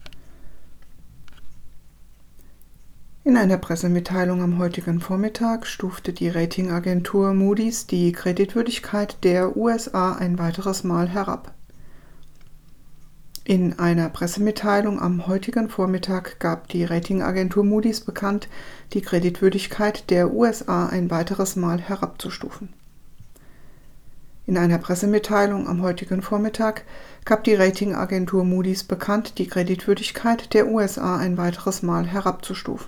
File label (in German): Nachrichten Varianten